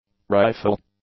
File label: "rifle", English; nouns